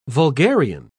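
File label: "vulgarian", British English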